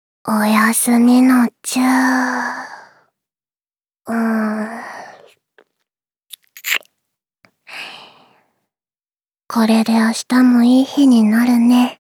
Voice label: おやすみのちゅ～…ちゅっ…これで明日も良い日になるね, ASMR, アラーム音声

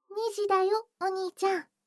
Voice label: 時報2時; 全肯定ロリママのあまあまでえっちな添い寝; いもおかASMR

